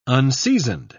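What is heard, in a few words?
"unseasoned", British English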